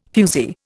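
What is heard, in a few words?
"fuzee", Indian English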